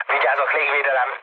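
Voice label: Hungarian damaged aa mood low, speech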